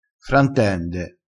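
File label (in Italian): "frantende", vocabolario dialettale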